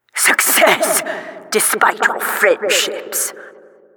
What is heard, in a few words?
announcer success